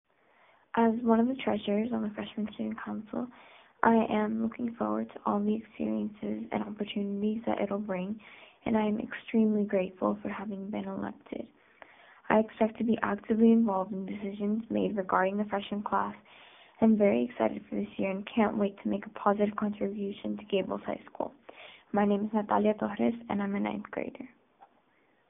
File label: Audio Message